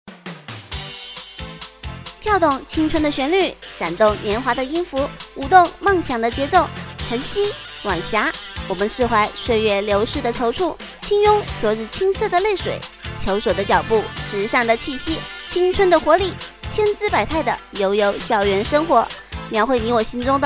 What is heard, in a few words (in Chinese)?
娱乐 播报